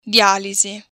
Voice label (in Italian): "dialisi", Italian